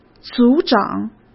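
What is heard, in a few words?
"组长", Mandarin Chinese; chinese flashcards; hsk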